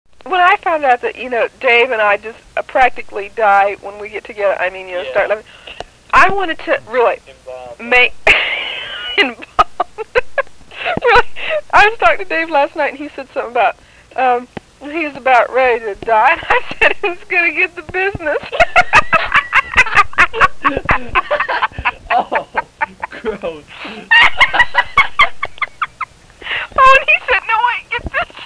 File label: Phone Call